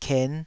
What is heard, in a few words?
"ken5", taiwanese syllables